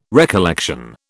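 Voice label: "recollection", British English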